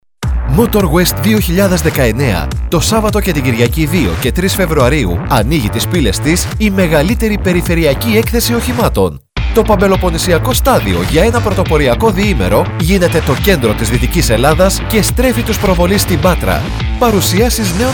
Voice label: Radio; Motor West